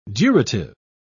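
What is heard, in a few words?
"durative", British English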